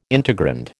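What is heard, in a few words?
"integrand", American English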